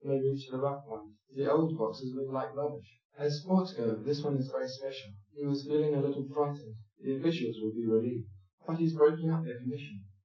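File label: male speech; SiSEC10; underdetermined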